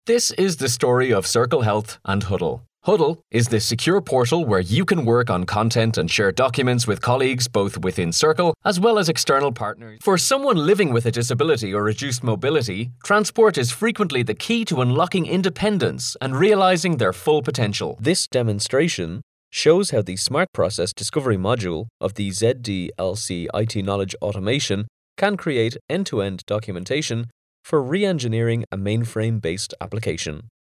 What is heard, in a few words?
male irish voice over talent narrative